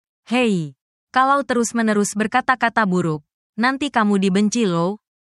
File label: indonesia, negative phrases